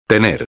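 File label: "tener", Spanish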